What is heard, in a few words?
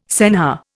"senhor", Indian